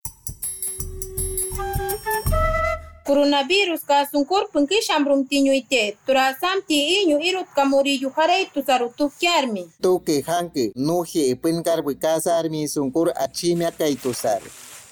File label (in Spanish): achuar uso de mascarillas 2 voces, spot de radio; medidas de prevencion